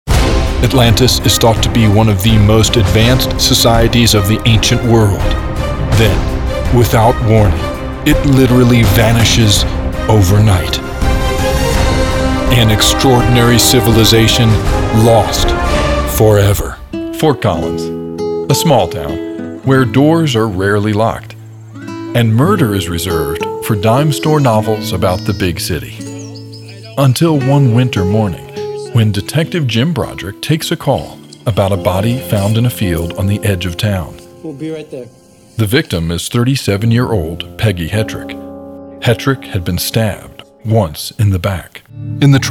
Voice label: Doc Narration